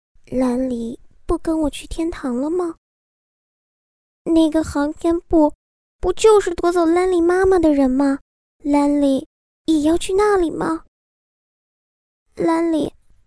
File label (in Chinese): 女35 动画; 动画